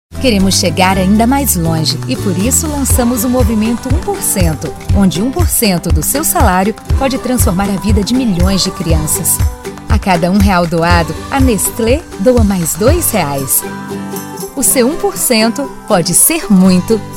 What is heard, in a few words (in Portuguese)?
Locutora Demonstrativo de Voz Geral